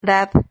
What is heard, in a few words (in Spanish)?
"rad", Silabas